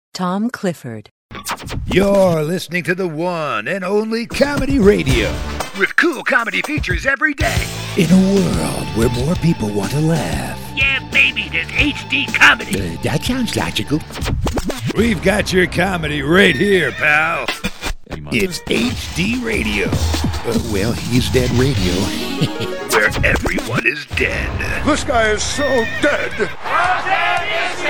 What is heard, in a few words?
Rad Imag; Radio Imaging Demo